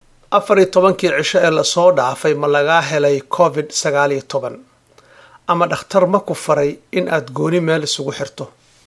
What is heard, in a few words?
Somali; Non-Emergency Work Orders Question 2